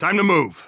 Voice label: radio lets go; Counter Strike Model Pack; CS:GO; phoenix coop